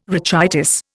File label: "rachitis", Indian